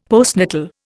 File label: "postnatal", Indian; Dictionary